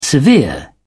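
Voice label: "severe", British English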